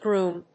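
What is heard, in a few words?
"grume", English; Weblio英和辞書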